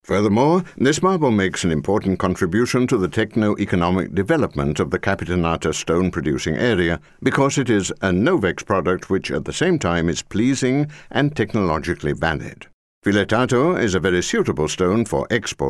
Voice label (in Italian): inglese uk